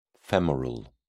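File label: "femoral", British English, dictionary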